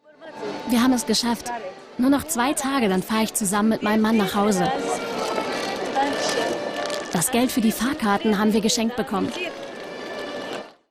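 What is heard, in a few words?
voiceover reportage; klauen betteln frieren; ndr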